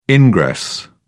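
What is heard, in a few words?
"ingress", British English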